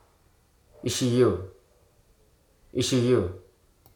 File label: "lame", Hruso